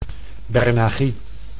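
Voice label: "bernagie", Dutch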